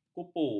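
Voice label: "kupu", Malay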